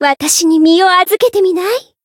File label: Voice chant, jp; pom